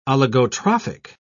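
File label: "oligotrophic", British English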